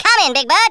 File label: "ORDERS", Australian; Worms Armageddon